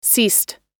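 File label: "ceased", US English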